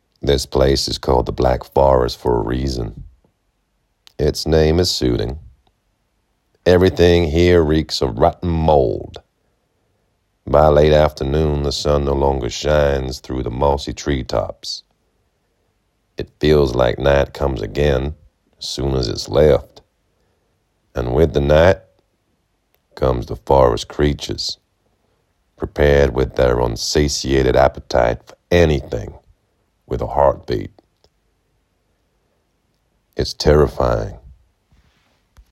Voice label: Southern US